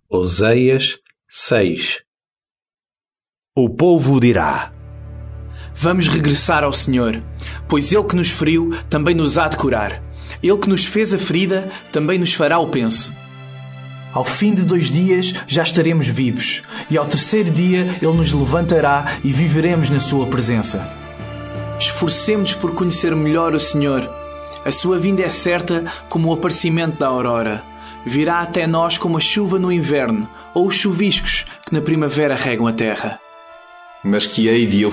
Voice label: portuguese, drama; portuguese bsp nt drama; oseas